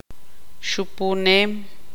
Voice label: tzutujil; soplar